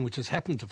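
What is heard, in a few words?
"which has happened", Standard Southern British English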